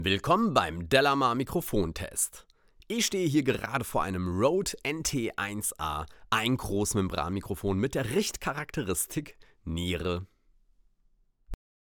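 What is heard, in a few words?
speech male; rode nt1a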